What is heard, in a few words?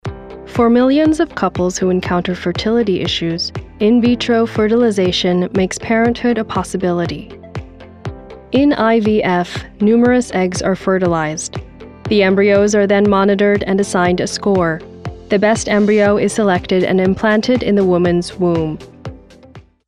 english american narration